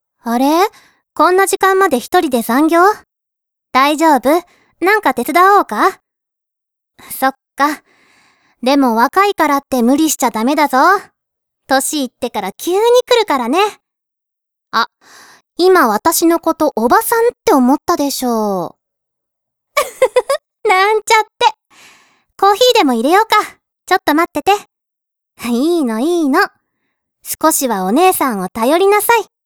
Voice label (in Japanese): 優しい職場のお姉さん